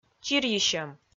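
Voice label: "чирйысьӧм", Komi, female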